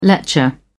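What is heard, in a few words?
"lecher", British English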